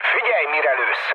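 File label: Hungarian player friendly fire mood low, speech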